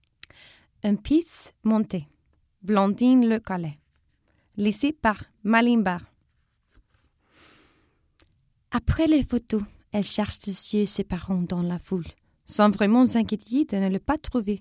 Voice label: French